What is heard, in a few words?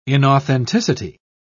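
"inauthenticity", British English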